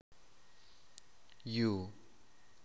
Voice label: soil, teotitlan